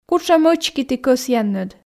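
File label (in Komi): кутшӧм ӧчки ті кӧсъянныд, Komi, female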